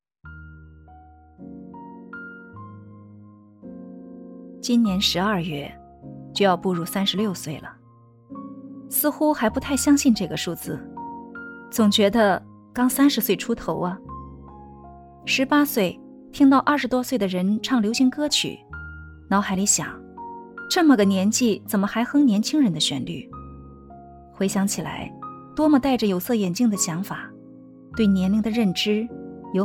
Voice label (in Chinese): 人到中年独白